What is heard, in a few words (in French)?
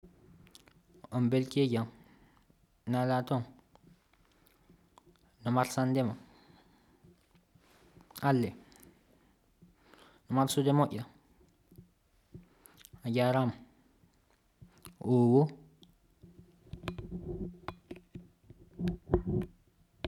Peul